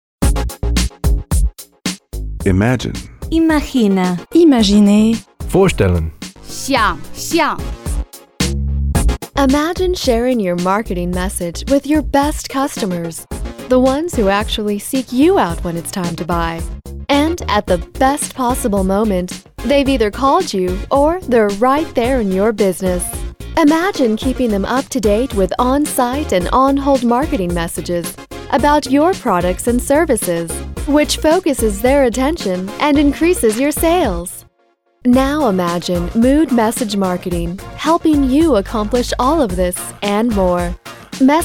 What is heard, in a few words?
Voiceover Website Audio